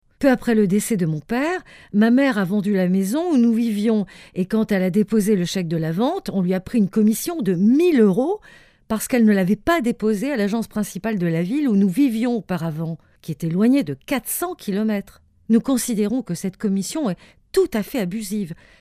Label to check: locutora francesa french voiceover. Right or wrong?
Right